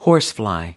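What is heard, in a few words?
"horsefly", American English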